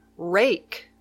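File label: "rake", English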